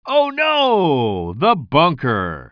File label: commentator